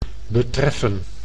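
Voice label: "betreffen", Dutch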